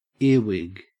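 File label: "earwig", Australian English; pronunciation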